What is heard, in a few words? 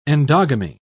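"endogamy", British English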